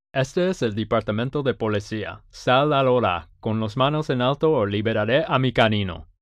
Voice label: Police Canine Release (Spanish); Pathfinder

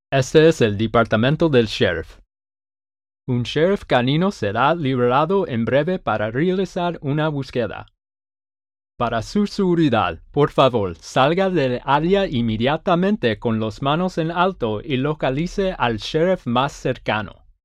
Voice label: Sheriff Canine Search (Spanish); Pathfinder

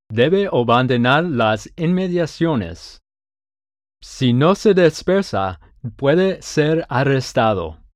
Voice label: Leave Vicinity (Spanish); Pathfinder

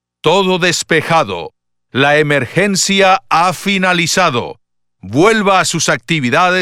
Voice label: All Clear (Spanish); Pathfinder

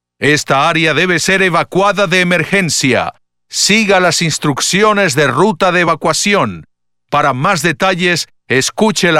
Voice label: Emergency Evacuation (Spanish); Pathfinder

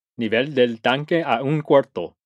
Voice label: tank level one quarter, Spanish

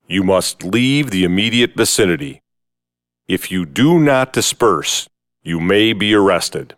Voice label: Leave Vicinity (English); Pathfinder

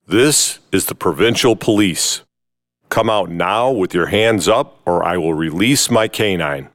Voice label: Provincial Police Canine Release (English); Pathfinder

